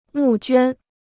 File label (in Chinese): "募捐", Chinese